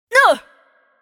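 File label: Attack Heavy, Chinese; VO; bloom minigame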